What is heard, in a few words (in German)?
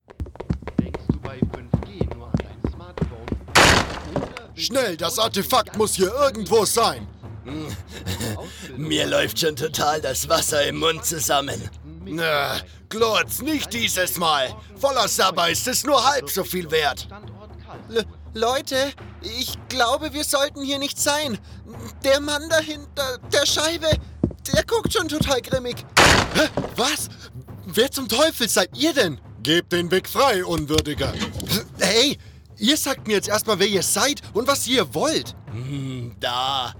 Charakter Gaming Deutsch